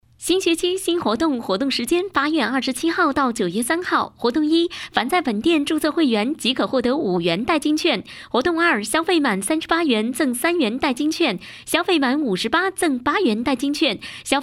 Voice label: 活动促销广告

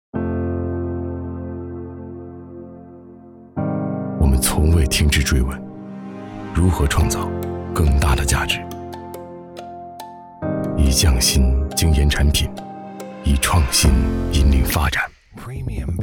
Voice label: 宣传走心感情; 斯科

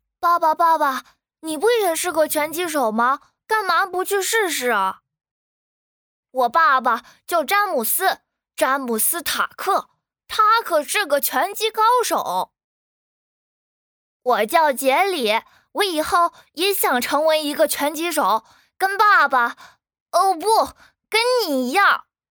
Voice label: 小男孩

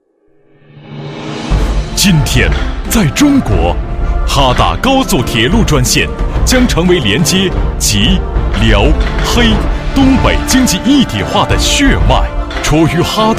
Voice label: 专题 大气 高铁

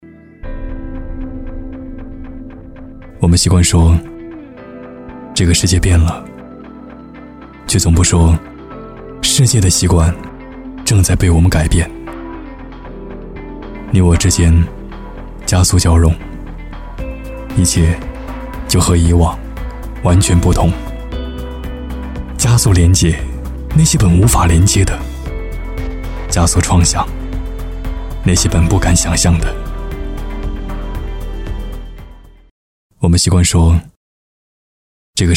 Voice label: 走心; 走心华为中国生态之行主题形象

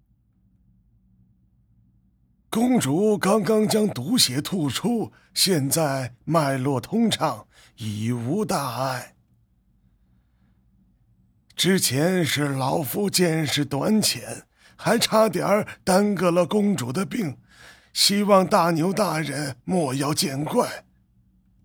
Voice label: 老年

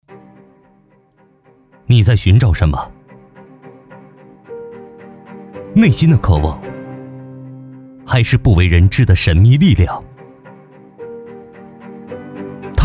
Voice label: 高级感 力量感 坚定 叙述

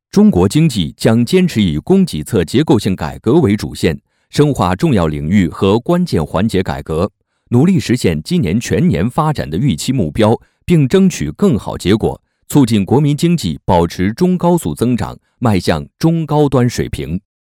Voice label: 新闻

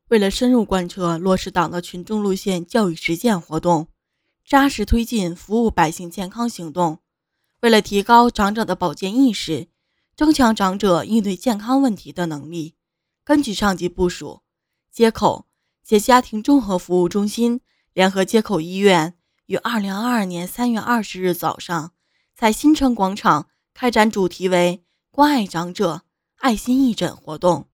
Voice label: 播音腔

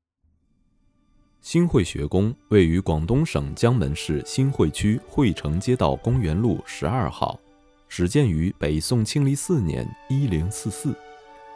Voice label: 博物馆宣传推广

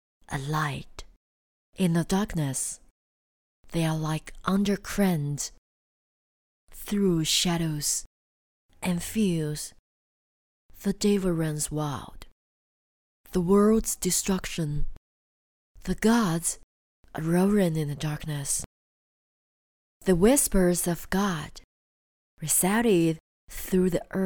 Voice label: 英文 独白

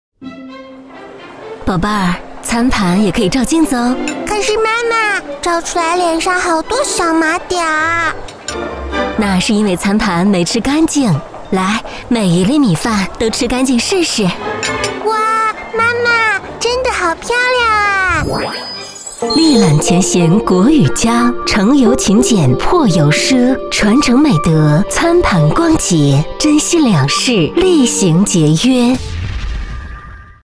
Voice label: 公益对话广告厉行节约光盘行动

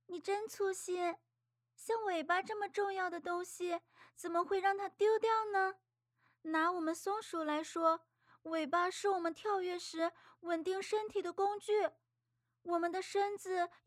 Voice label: 女童

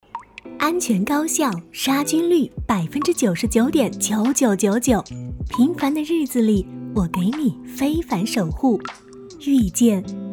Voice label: 广告舒适感强

